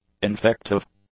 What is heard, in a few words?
"infective", English; adjectives